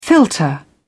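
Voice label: "filter", British English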